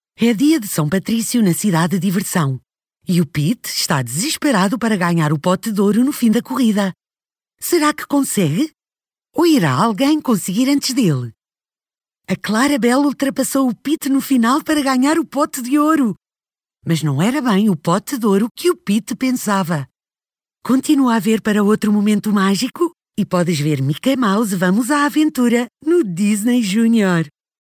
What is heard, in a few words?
Childrens TV, voice demos; Disney Junior Channel